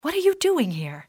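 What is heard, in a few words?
"what are you", english, female; XBOX; civ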